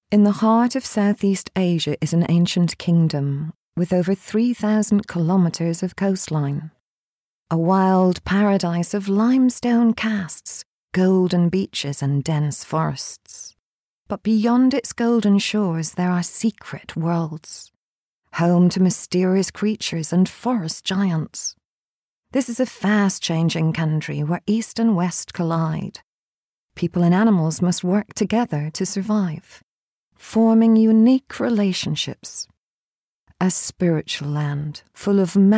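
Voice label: Northern Irish F Documentary, accent of ireland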